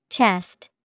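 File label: "chest", English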